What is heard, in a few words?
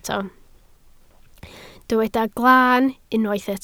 "glaan", Welsh